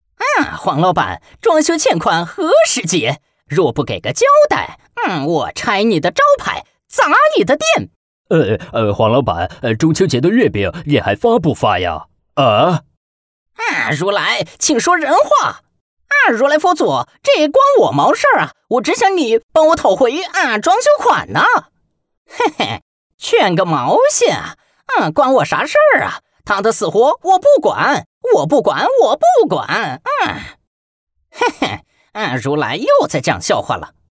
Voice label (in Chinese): 男, 动漫; 孙悟空和猪八戒